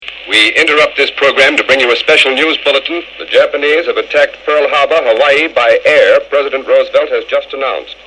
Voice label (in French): radio americaine annonce pearl harbor